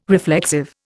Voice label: "reflexive", Indian

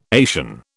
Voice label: "Asian", British

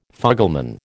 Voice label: "fugleman", American

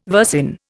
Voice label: "versin", Indian

